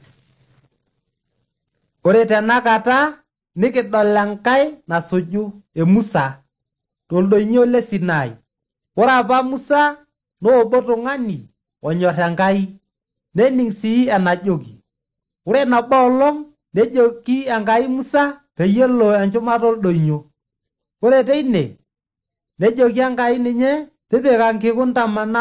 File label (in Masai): maasai bsv nt drama; good news; bible